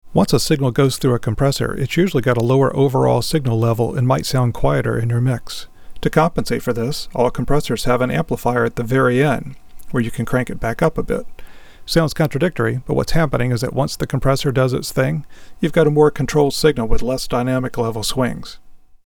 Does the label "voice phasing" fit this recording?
Yes